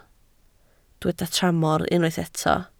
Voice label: "tramor", Welsh